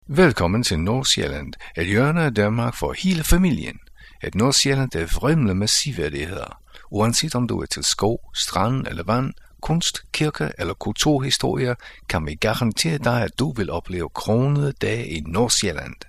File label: Danish; foreign languages